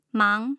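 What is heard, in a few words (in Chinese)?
"mang2", Mandarin; tough sounds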